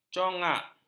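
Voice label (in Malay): "congak", Malay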